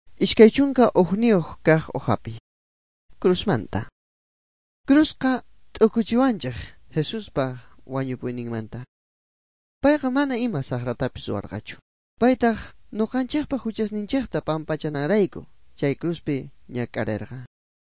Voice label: good news quechua bolivian sucre; bible

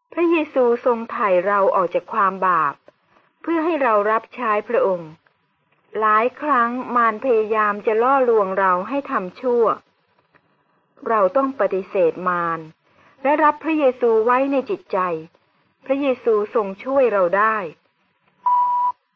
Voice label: good news short thai central

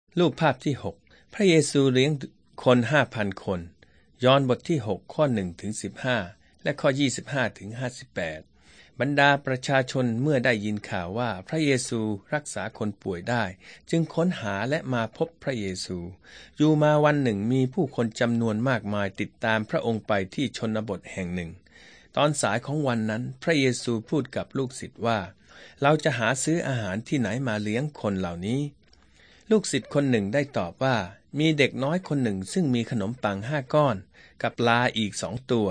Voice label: thai central

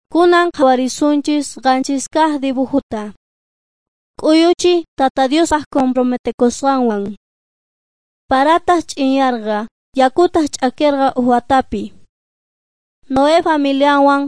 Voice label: beginning with god quechua s bolivian cochabamba

